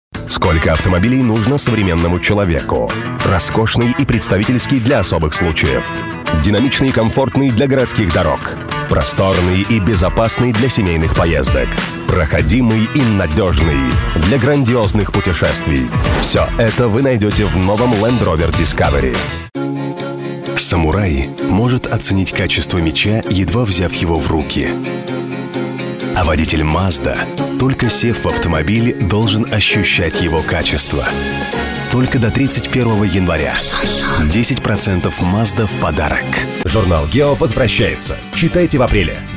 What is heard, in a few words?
rus